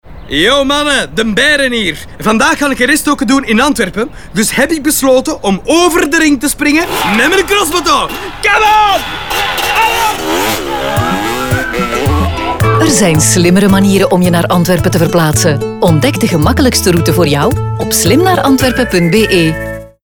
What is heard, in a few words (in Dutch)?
Radio; StadAntwerpen; Slim naar VL; Muziek; Crossmoto